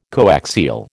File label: "coaxial", American